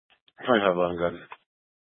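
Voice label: Sheriff Dispatch, scanner audio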